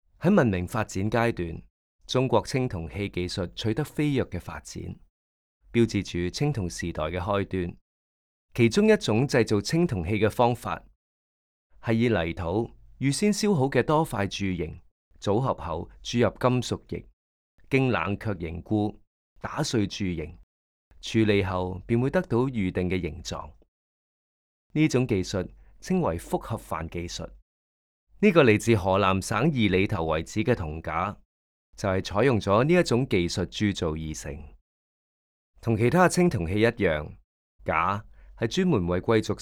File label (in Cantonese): Can VO; audio guide